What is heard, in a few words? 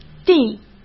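"第", Mandarin Chinese; hsk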